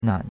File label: "nan3", chinois; Cours-de-Chinois